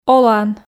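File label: "олан", Komi, female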